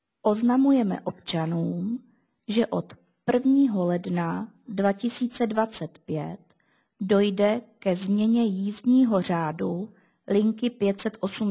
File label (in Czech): hlaseni; rozhlas